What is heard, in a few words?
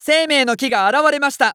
Announce Tree Of Life Appear, JPN; Eternal Return